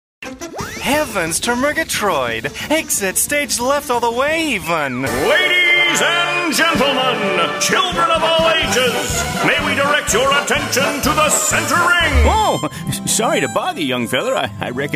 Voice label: US animation